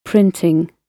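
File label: "printing", British English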